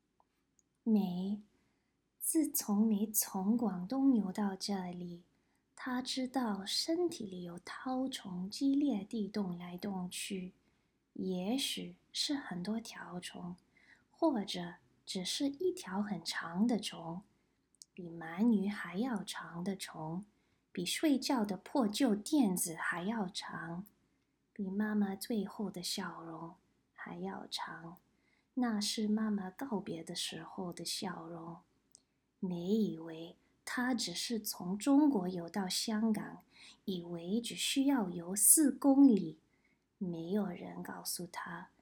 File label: Mandarin